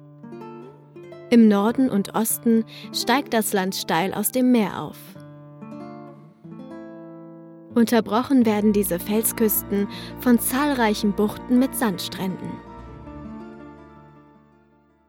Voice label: Dokumentation Sizilien; LOFT VOICES

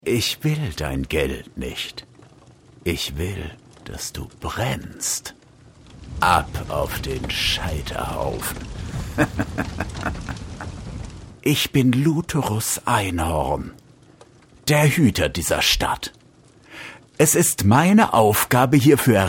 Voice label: Charakter Psychopath Deutsch; Lutherus Einhorn

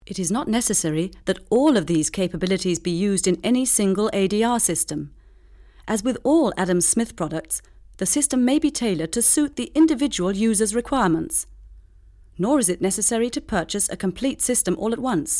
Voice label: uk englisch

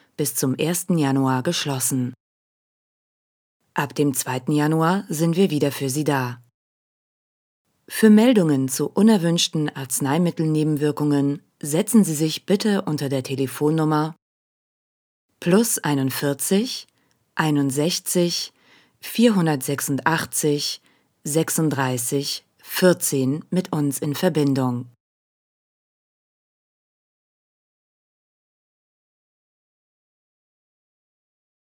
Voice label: Pharma Ansage

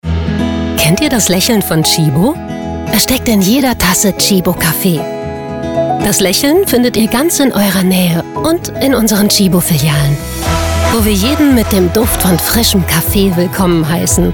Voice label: Werbung Kaffee

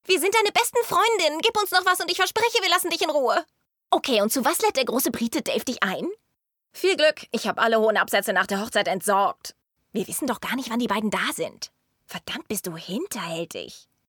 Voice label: Synchron - Realfilm - schräg (f), German; Lip-Sync (Synchron), Comedy, Scene; voice; Big Bang Theory

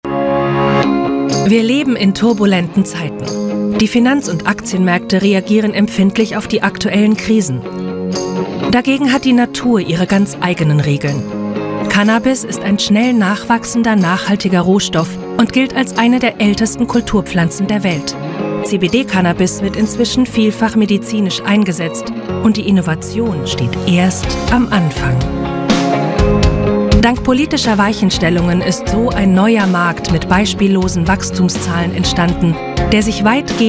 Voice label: Erklaervideo; CBD Cannabis

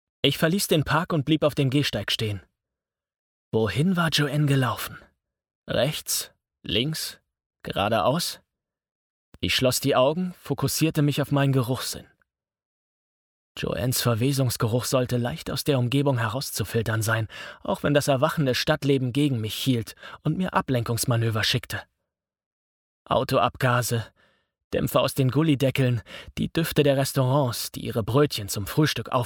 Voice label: Erzaehlerstimme Hoerbuch; Die Chroniken der Seelenwaechter

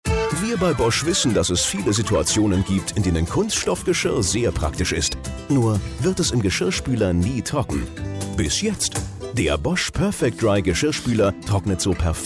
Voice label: Werbung Technisch; Bosch TVC

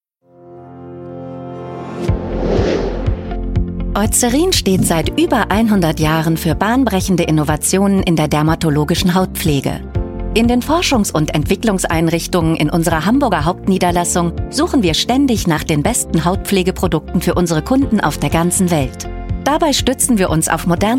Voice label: Imagefilm; Eucerin RD Tour

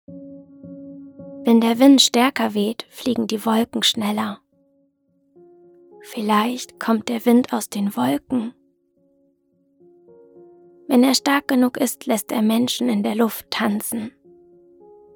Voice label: Werbung Auto

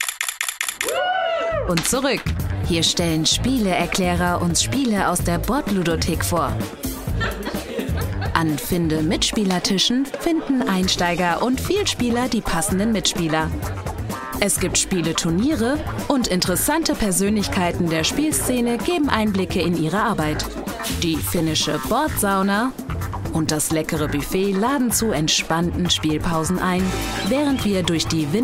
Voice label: DE WERBUNG Board Game Cruise

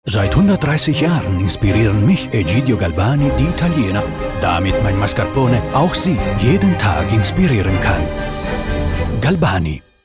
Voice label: TV Spot DE mit Akzent; Galbani

